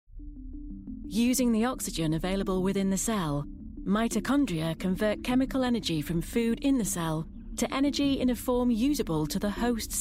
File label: english british medical narration